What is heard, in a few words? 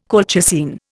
"colchicine", Indian